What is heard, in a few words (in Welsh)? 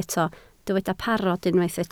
"parod" Welsh